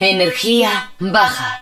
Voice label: Energy Low, Spanish